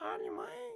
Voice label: Pig Chef, Voices